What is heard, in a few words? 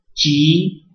"zhi3", Hakka; family